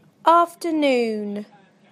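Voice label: "afternoon", British English; PictureDictionary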